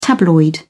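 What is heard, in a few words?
"tabloid", British English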